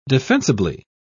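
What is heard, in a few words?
"defensibly", British English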